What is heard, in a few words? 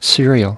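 "cereal", US English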